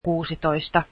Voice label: sixteen, finnish